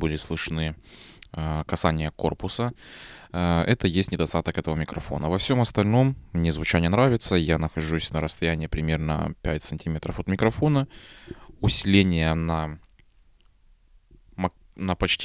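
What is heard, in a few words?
test voice fifine amplitank 3 usb; ТЕСТ ГОЛОС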